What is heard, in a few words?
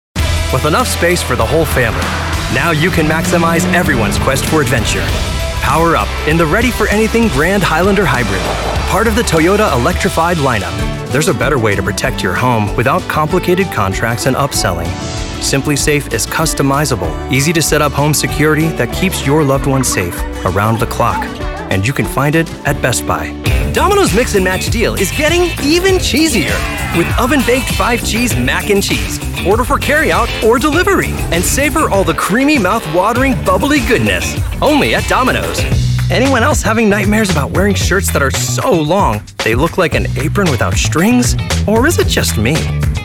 commercials